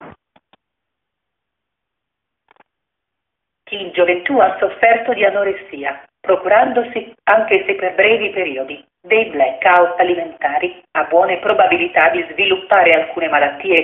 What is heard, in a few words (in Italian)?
frase femminile